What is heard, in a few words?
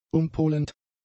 "umpolend", German; adjectives